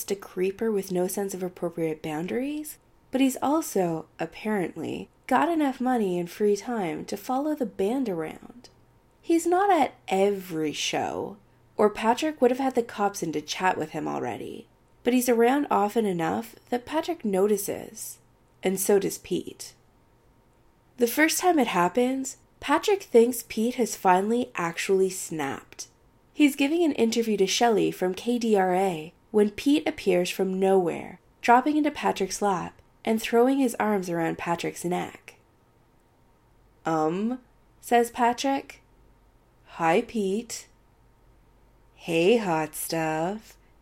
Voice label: Narrator Audition